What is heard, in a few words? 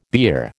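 "beer", American English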